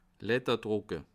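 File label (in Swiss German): "ledderdrucke", Bas Rhin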